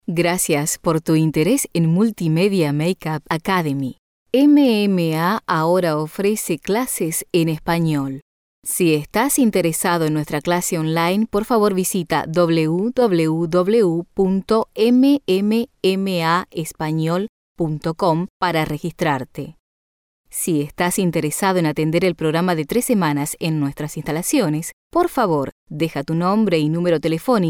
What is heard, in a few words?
SPANISH, Voice over; Multimedia Makeup Academy Voicemail